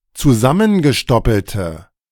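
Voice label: "zusammengestoppelte", German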